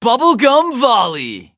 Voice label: touch, en; cookie run

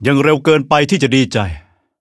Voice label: levelup, th

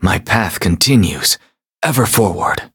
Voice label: levelup en; cookie run

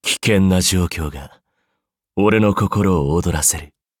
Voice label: level up, ja; Cookie Run

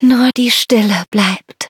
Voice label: defeat, de; cookie run

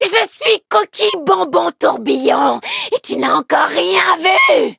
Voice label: loading, fr

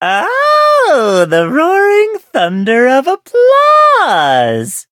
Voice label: levelup, en; Cookie Run

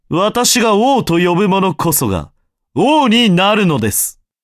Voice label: upgrade, ja; cookierun

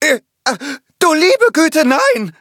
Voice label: defeat, de; Cookie Run

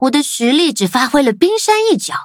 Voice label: levelup, zh-hant

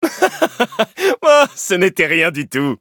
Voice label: victory, fr; Cookie Run

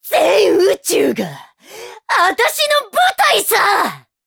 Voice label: upgrade, ja; Cookie Run

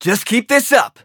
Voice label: eng winning; Ice Pop

